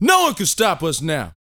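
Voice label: talk female, sci-fi; 5 SCI-FI CHZ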